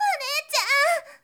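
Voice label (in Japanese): "onechan", Japanese girl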